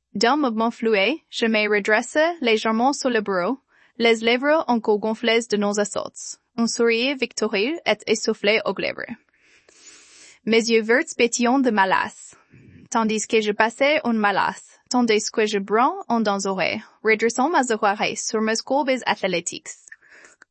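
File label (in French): voix en français; IA petite amie vocale; Muah AI; IA petite amie vocale sans censur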